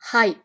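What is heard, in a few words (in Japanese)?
"はい", Japanese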